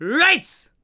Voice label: RIGHTS, SHOUT, Human